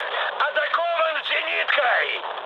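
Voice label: Russian damaged aa mood high, speech; War Thunder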